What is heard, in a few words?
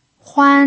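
"huan" first tone, pinyin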